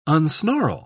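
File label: "unsnarl", British English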